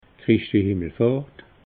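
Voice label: "christi himmelfahrt", Alsatian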